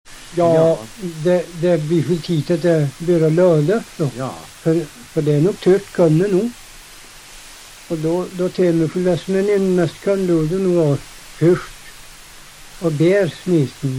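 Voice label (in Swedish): Hede Härjedalen, svenska sagor dialekter; Folkminnen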